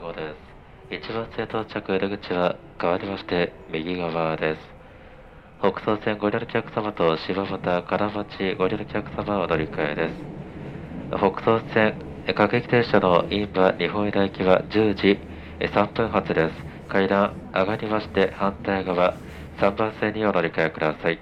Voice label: Japanese Train Announcement By The Conductor

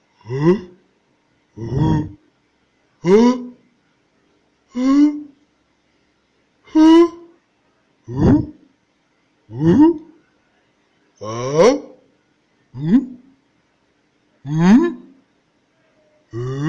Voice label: Surprise Expressions Awww

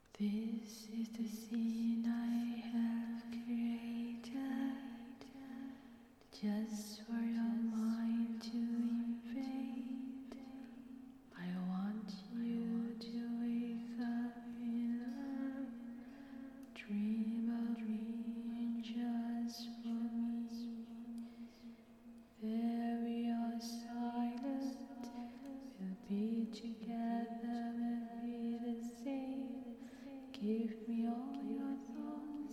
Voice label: Female Voice Spell

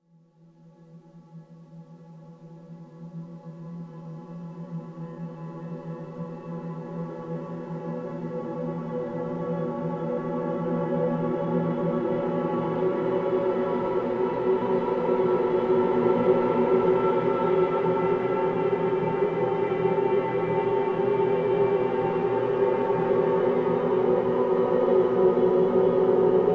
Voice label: Convolved Voices

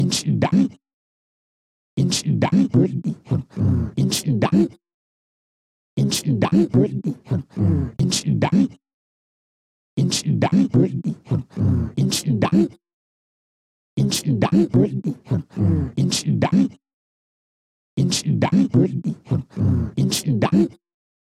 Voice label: Weird Voice Merged

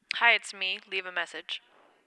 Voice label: Answering Machine Female Hi Its Me

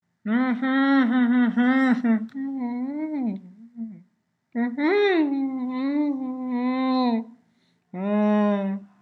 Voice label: Mumbled Voice

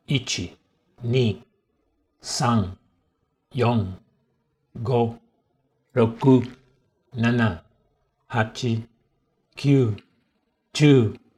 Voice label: Japanese Numbers

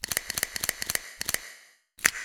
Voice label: Robot

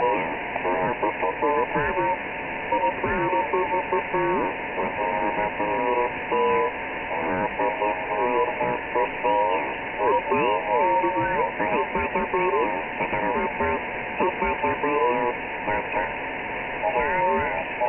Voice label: Sideband Am Voice

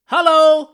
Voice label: Hello Scream Male Loud

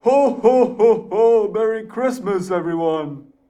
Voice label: Santa Ho Ho Ho Ho Merry Christmas Everyone

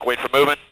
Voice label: Voice Male Radio Wait For Movement

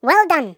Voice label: Well Done Mouse Squirrel Cartoon

